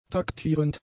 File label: "taktierend", German; adjectives